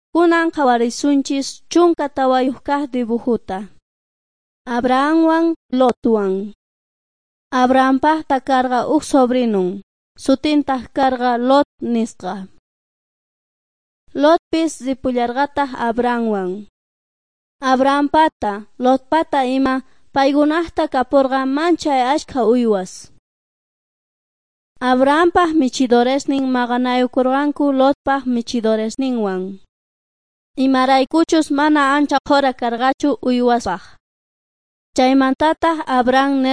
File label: beginning with god quechua s bolivian cochabamba